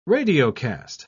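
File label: "radiocast", British English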